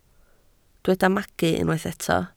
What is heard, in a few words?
"magu", Welsh